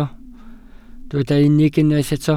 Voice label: "unig", welsh